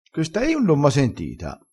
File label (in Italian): "rivendila", crespinese, vocabolario dialettale